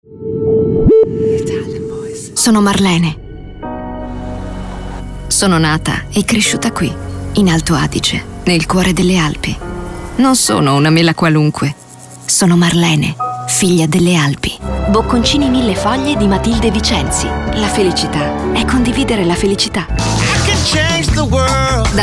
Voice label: ITALIAN VOICES Charming Elegant